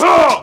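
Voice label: "huh", vocal sample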